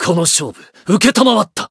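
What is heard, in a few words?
encounter, Japanese; shion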